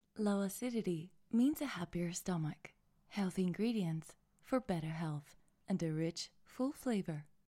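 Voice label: Greek Cypriot, English accented; GoLocalise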